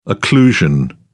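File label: "occlusion", British English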